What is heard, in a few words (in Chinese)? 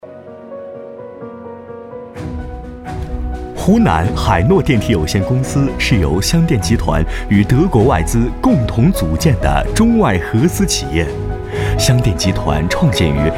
企业宣传 电梯公司; 湖南湘电电梯公司